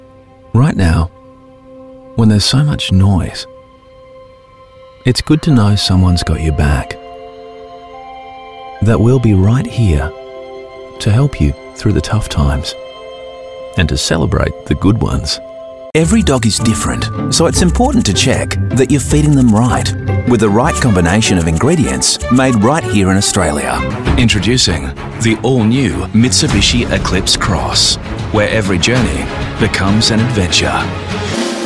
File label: Commercial